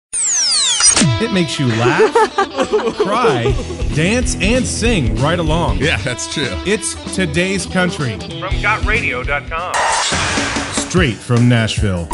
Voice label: english american radio imaging